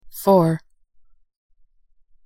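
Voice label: "four", English pronunciation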